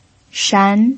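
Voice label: "shan" Mandarin Chinese, second tone, pinyin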